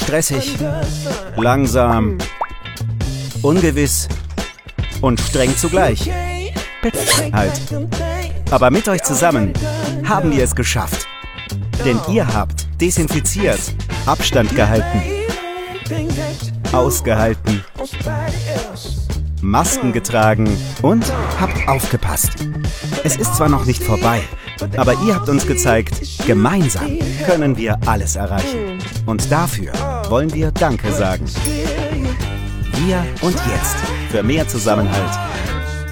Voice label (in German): Werbung direkt genervt freundlich authentisch; Edeka